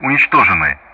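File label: Speech Sound Russian; Common Player destroyed; DCS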